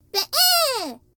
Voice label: Deer exclaim